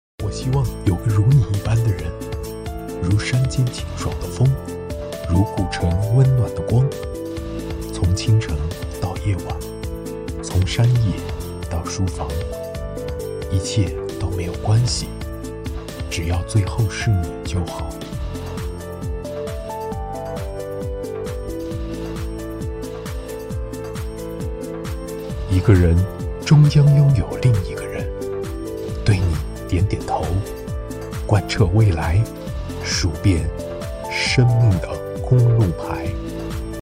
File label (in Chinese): 影视台词经典独白